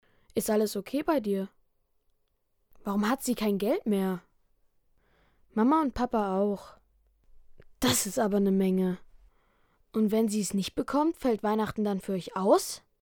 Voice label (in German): synchron realfilm